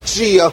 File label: "yeah" "jeah", voice